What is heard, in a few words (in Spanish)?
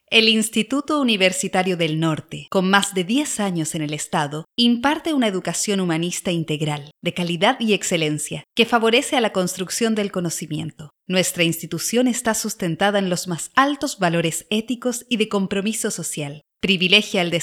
Corporativo